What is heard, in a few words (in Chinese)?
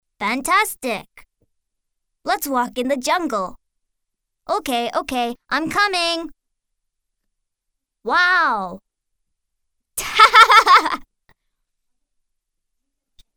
美式英语 男童, 外语